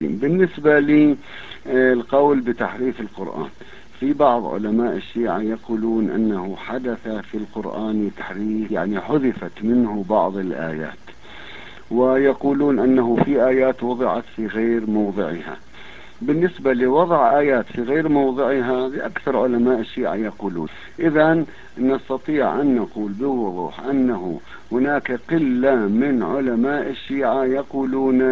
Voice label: quran, korane; shia